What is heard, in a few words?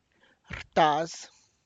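tlacochahuaya, Zapotec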